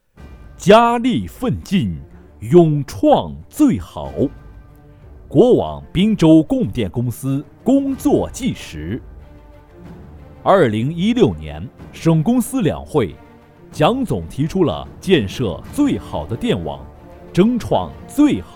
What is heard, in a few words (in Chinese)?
男 专题, 国语男声配音, 浑厚; 国网滨州供电公司